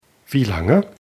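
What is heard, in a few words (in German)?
wie lange, German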